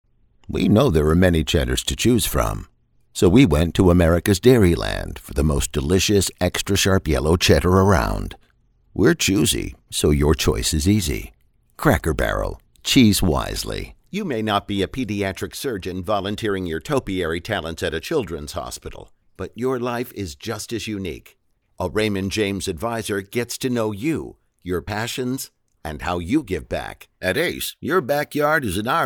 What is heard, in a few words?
COMMERIAL DRY